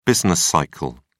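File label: "business cycle", British English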